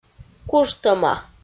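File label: "кужтӧма", Komi, female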